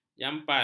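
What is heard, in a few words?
"jampal", Malay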